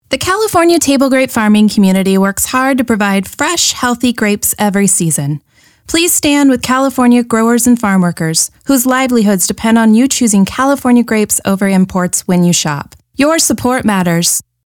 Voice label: radio spot english